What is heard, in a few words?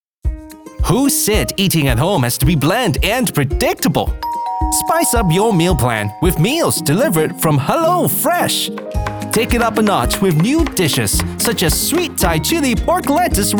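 British English Commercial; GoLocalise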